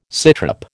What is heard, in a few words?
"sitrep", American